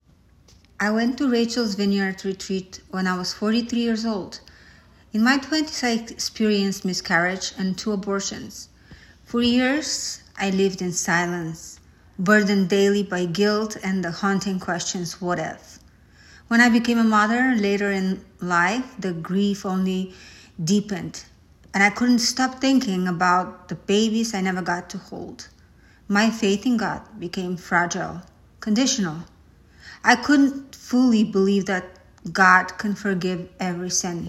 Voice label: Testimonial (Female)